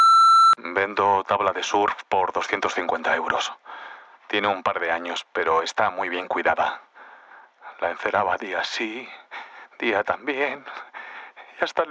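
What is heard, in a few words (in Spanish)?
humor, Locución publicitaria; locutor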